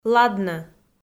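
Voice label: "ладнӧ", Komi, female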